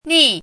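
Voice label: "nì", Chinese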